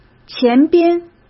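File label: "前边", Mandarin Chinese; chinese flashcards; hsk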